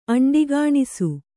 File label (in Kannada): "ಅಂಡಿಗಾಣಿಸು", Kannada